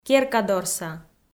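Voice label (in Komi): "керкадорса", Komi, female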